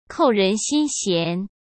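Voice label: "扣人心弦", Mandarin Chinese; hsk; chinese-flashcards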